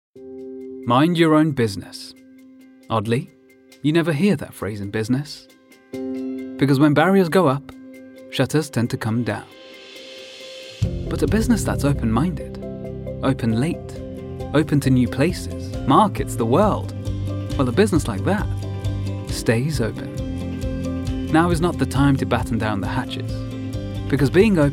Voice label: male english commercial; HSBC; Indian Gujarati; English northern accents

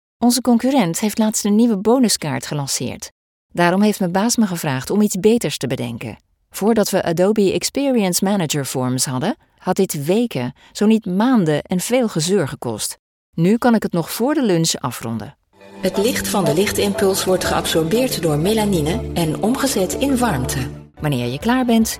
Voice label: female dutch e-learning compilation

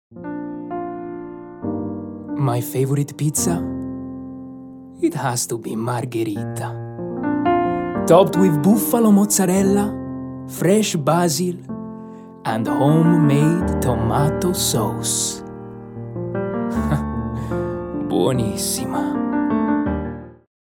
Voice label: male english with italian accent pizza commercial; english and italian

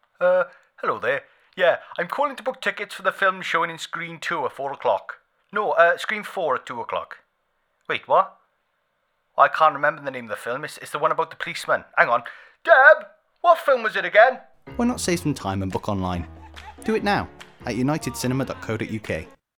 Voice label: male welsh valleys and welsh general accents; english and welsh; united cinema; devine voices

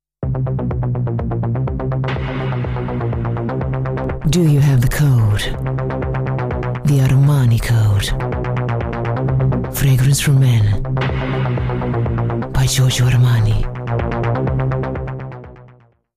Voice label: female english with greek accent commercial; english and greek; voices; armani code

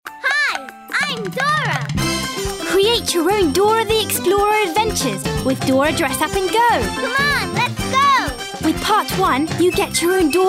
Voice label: female English commercial compilation; southern and northern england

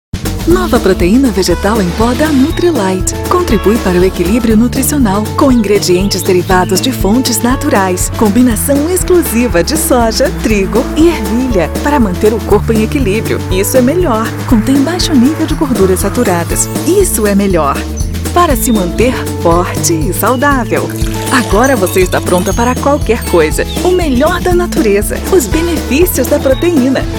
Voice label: female brazilian portuguese explainer video

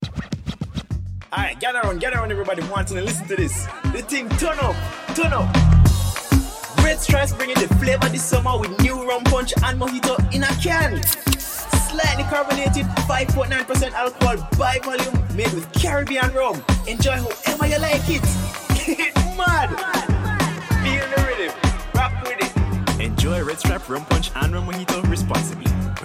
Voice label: male jamaican patois commercial; english usa; red stripe

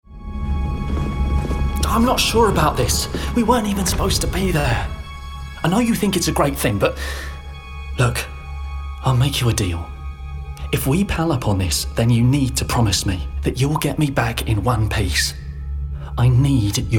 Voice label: male multiple accents gaming compilation; Devine Voices

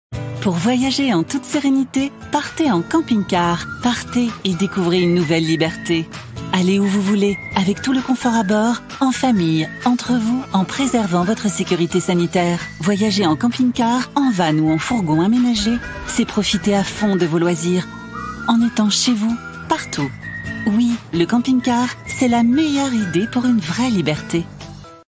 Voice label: female French camping car TV commercial

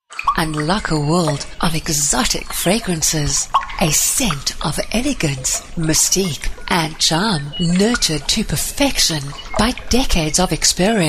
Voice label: female English South African perfumes commercial; ajmal

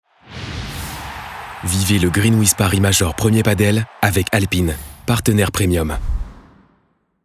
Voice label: male french commercial

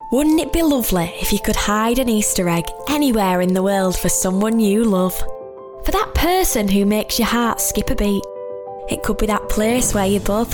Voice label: female english yorkshire accent commercial

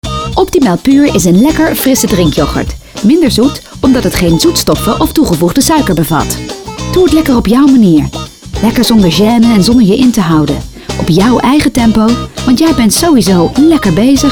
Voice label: female dutch commercial; optimel

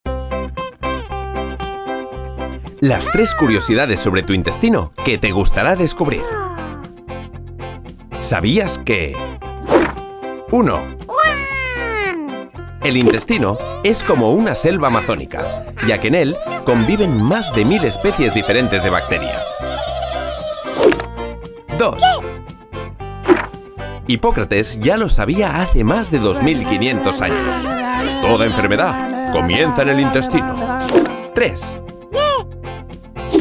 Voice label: male spanish castilian corporate; catalan; chiesi

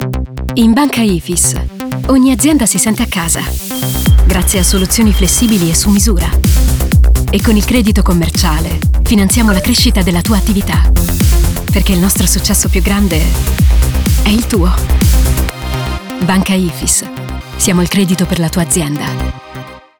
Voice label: female Italian bank; ifis

